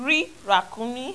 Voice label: "R rakunmi", Yoruba; ALPHABET RHYME IN BITS

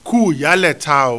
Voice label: "kuuyaleta o", Yoruba

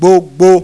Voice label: "gbogbo", Yoruba; CONSONANTS; gbogbo-gbagba-igba

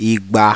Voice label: "IGBA", Yoruba

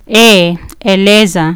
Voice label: "eleza", Kiswahili

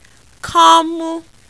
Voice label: "komu", Yoruba

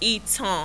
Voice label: "itan", Yoruba; NASAL VOWELS; eran-itan-adan

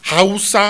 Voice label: "hausa", Yoruba; CONSONANTS; haha-hausa-iho

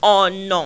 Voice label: "ona", Yoruba; CONSONANTS; ninu-ana-ona

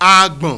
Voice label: "agbon", Yoruba; NASAL VOWELS; ogbon ibon agbon

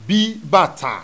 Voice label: "B BATA", Yoruba; ALPHABET RHYME IN BITS

